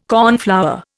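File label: "cornflower", Indian English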